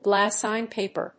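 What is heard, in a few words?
"GLASSINE PAPER", English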